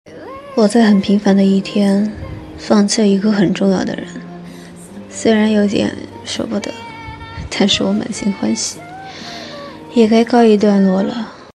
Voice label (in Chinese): 御姐; 即使见面了又如何